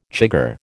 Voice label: "chigger", American